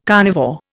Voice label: "carnivore", Indian

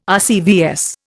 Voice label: "RCVS", Indian

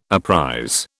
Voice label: "apprize", British

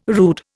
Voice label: "rood", Indian

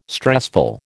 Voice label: "stressful", American

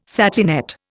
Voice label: "satinette", Indian

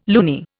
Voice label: "loony", Indian

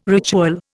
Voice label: "ritual", Indian

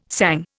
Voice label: "sang", Indian

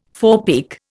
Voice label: "forepeak", Indian

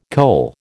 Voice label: "coal", American

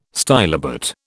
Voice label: "stylobate", British

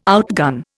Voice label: "outgun", Indian

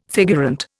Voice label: "figurant", Indian

